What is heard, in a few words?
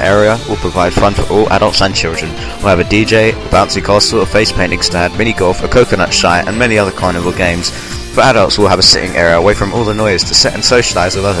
community spirit advert